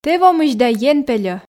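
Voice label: "тэ вомысь да ен пельӧ", Komi, female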